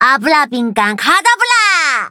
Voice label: loading, zh-hant; Cookie Run